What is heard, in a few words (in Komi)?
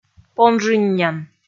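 "понжыннян", Komi, female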